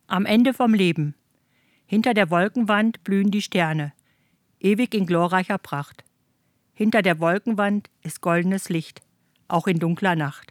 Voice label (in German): Hochdeutsch; Friedhof; Plattdeutscher Weg; Nottuln